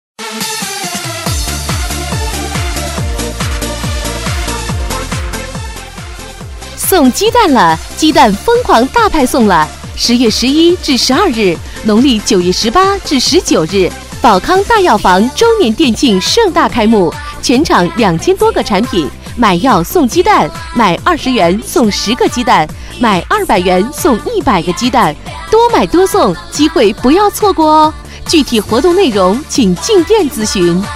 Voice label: 广告

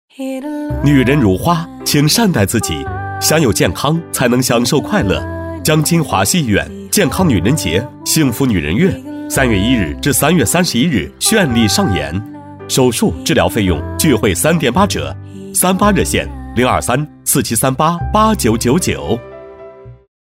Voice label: 广告; 华西医院

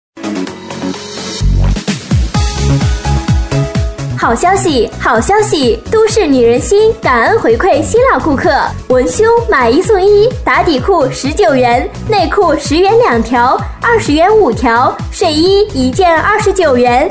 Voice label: 激情促销; 感恩回馈; 都市女人心

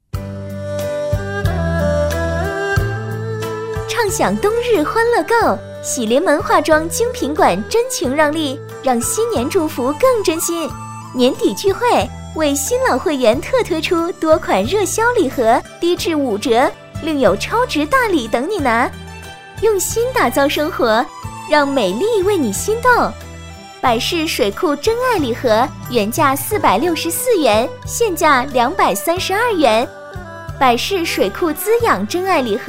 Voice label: 广告; 喜临门化妆精品店

